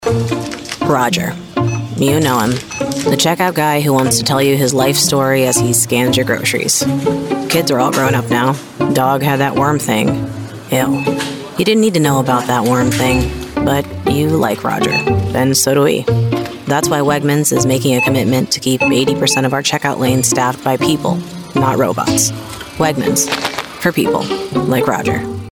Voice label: Commercial FLAT Disconnected; Wegmans

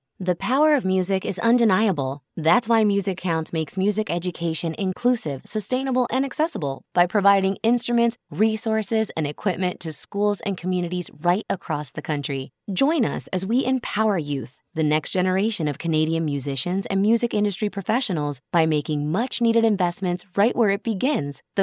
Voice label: Friendly Upbeat Young

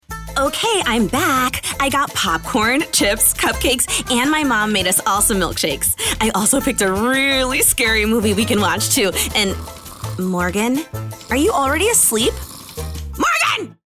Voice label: Bratty Tween

